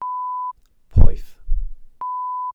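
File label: "poeth", Welsh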